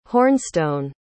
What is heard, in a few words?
"hornstone", pronounce